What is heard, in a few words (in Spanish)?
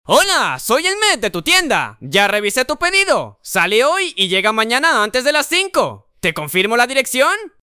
adulto energico